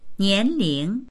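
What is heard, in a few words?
"nian2ling2", Mandarin Chinese; 年龄; 年齢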